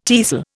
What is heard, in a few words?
"tiercel", Indian